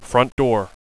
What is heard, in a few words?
front door, radio bot; cstrike